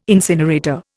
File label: "incinerator", Indian